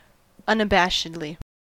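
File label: "unabashedly", US English; pronunciations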